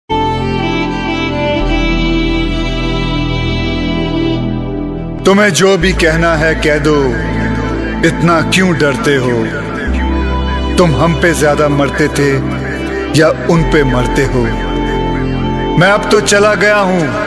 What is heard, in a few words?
shayari Dialogue ringtone